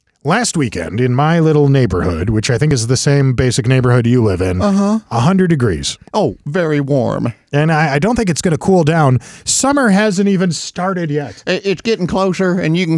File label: RADIO AD; nicks plumbing radio ads